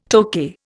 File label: "Tokay", Indian